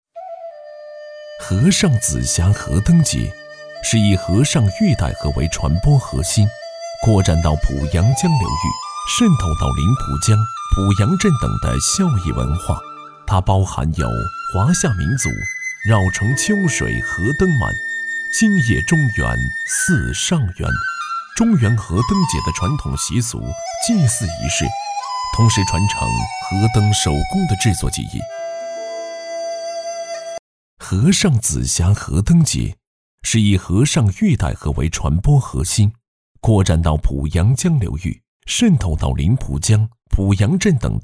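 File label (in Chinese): 记录 河灯节, 男 中年 普通话; 河上紫霞河灯节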